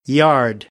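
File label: "yard", American English; AmericanBritish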